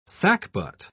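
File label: "sackbut", English, British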